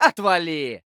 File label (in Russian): "Отвали!", Фраза; Лусио